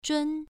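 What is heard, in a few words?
"zhun1", Chinese pronunciation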